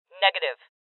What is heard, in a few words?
Voices Refuse Female; rebel cops 2019 gamerip